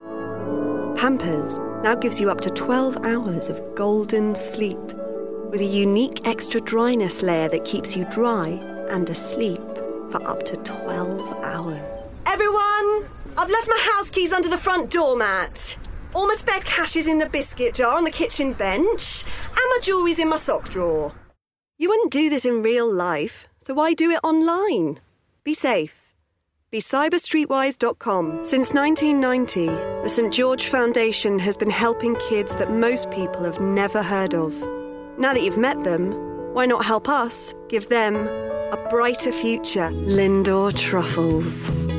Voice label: commercial